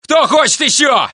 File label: Russian; mohaa